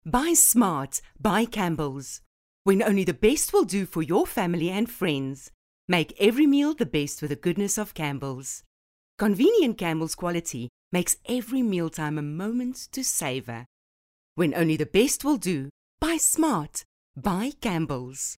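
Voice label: English Bright South African Neutral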